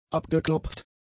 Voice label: "abgeklopft", German; adjectives